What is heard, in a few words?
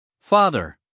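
"father", American English, male; everyone-can-use-english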